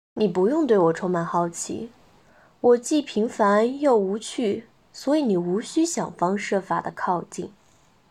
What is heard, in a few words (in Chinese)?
御姐